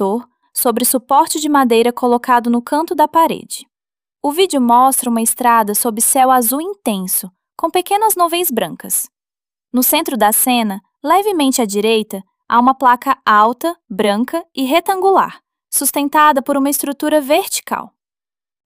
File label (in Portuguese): Audiodescricao do video tempo comum